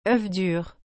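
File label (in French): "œuf dur", French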